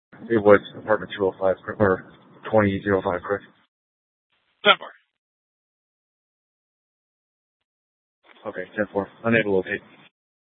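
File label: police dispatch radio, scanner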